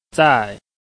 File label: "zai4", Chinese